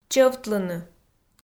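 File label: "чӧвтлыны", Komi, female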